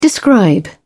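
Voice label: "describe", British English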